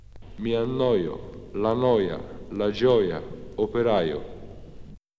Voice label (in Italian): Semivocale I Firenze